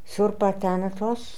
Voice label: "Surp Athanatos", pronounciations; Fourth Way; Beelzebub